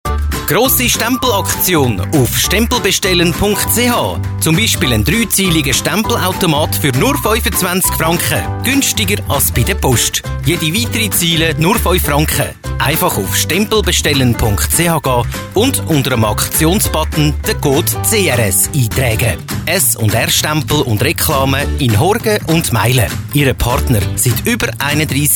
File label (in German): Werbung xmas; Stempelbestellen